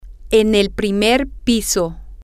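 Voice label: in the first floor, Spanish, female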